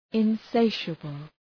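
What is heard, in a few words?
"insatiable", English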